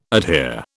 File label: "adhere", British